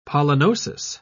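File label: "pollenosis", British English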